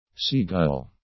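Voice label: "seagull", English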